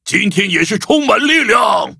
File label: touch, zh-hant